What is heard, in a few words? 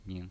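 "ning" neutral tone, pinyin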